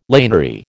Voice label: "laniary", American